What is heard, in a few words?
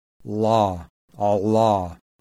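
velarized voiced alveolar lateral-approximant; IPA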